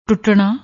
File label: "tutnna", Punjabi